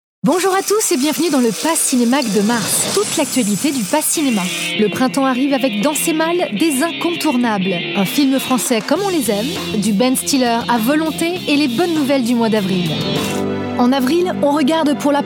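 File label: French, Commercial; GoLocalise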